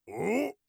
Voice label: inquisitive, orc voice; Orc Voice Pack